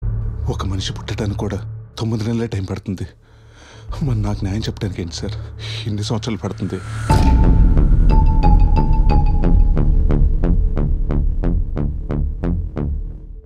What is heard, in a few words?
Dialogue, Telugu; Naandhi; Allari Naresh